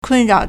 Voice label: "kun4rao3", Chinese